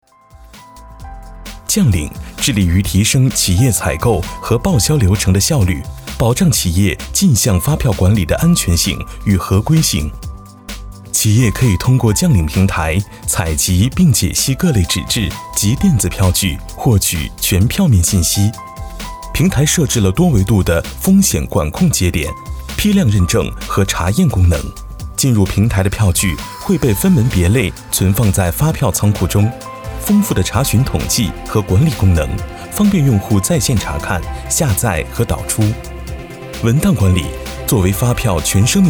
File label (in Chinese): 男 企业功能介绍